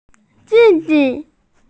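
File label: "di4di5", Mandarin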